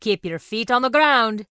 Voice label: Dwarf Female Guard NPC Farewell